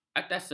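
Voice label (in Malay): "atase", Malay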